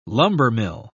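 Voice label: "lumbermill", British English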